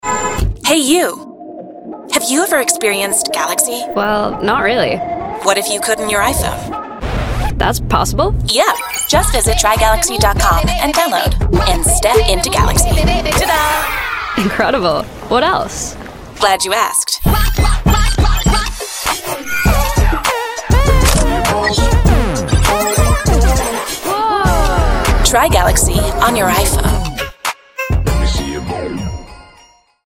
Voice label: Ad; Samsung; Try Galaxy

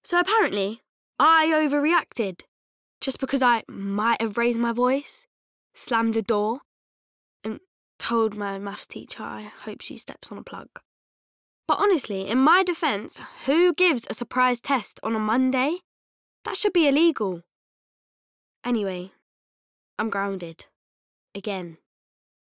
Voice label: narration

